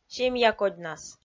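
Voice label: "семьякодьнас", Komi, female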